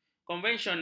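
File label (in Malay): "konvensional", Malay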